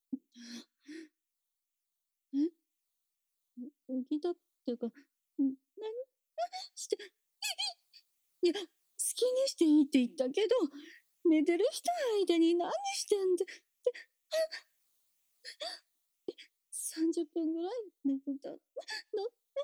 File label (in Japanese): 東北訛り 効果音なし; 2話目